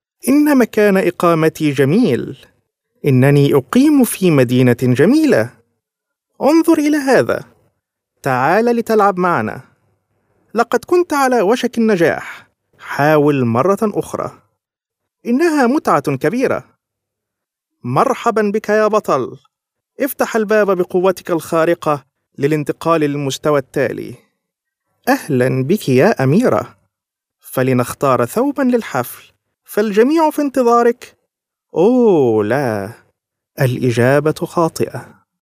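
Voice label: Arabic male children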